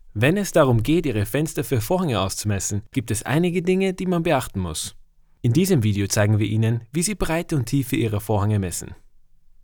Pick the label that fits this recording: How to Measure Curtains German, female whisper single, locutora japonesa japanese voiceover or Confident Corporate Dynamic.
How to Measure Curtains German